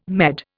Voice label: "Med", Indian

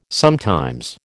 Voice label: "sometimes", American

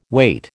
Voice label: "wait", American

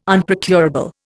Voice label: "Unprocurable", Indian

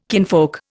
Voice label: "kinfolk", Indian

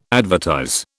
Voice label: "advertise", British

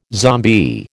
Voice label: "zombie", American

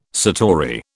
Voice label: "satori", British

